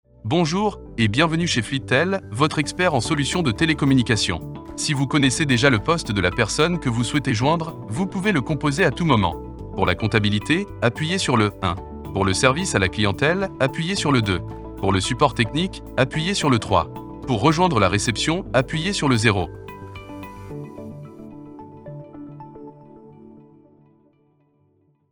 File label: NATURAL VOICE MUSIC